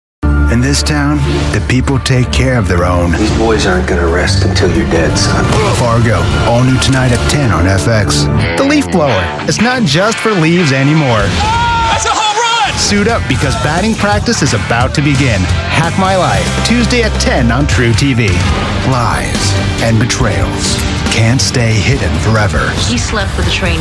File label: US English Promos